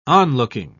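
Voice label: "onlooking", British English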